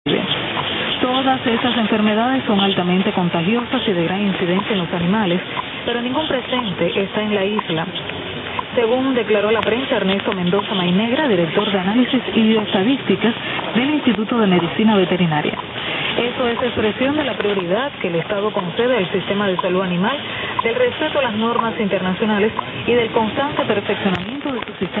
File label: Radio Reloj Cuba